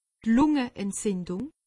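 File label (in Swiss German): "lungeentzindung", Alsatian; medecine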